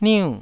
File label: "niu4", Taiwanese